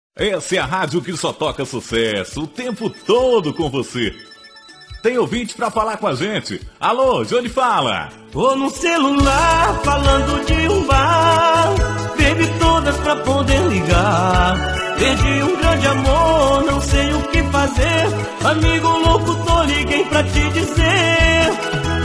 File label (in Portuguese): locutor